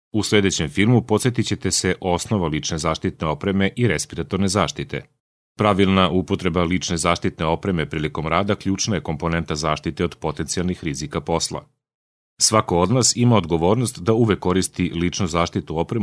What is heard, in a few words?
Serbian